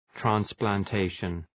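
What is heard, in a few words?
"transplantation", English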